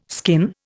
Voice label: "skin", Indian